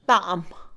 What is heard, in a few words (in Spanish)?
Mixe, Mujer; amargo; Percepción; INALI